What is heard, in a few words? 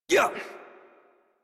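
horse start adult, English; Warframe; Duviri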